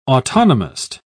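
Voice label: "autonomist", English, British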